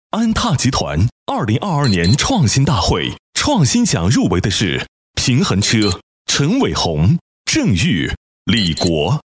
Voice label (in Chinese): 男 大气颁奖 大气有力; 磁性质感; 成熟厚重; 安踏集团